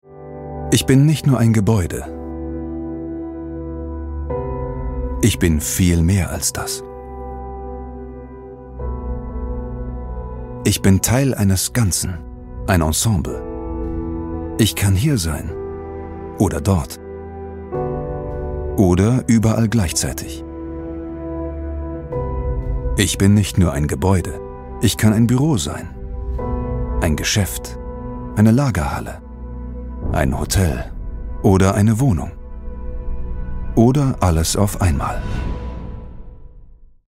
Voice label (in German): imagefilm; bnp paribas